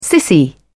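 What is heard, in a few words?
"sissy", British English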